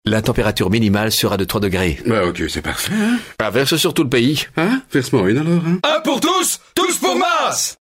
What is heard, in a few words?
advert vo acting medium dynamic; maes